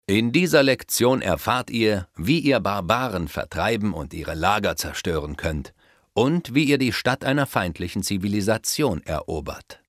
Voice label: Tutorial Combat, Speech German Advisors; Civ5

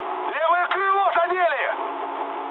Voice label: left wing, mood med, speech ru; War Thunder

